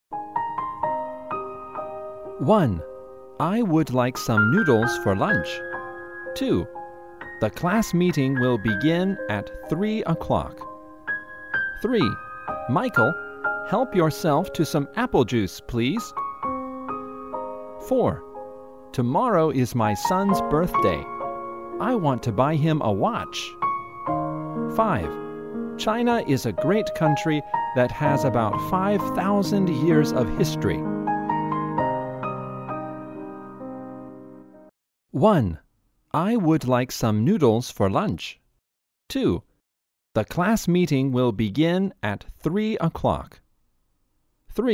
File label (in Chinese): 外籍英男, 年轻, 课件